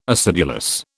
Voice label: "acidulous", British